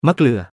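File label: "มะเกลือ", Thai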